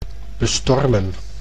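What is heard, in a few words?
"bestormen", Dutch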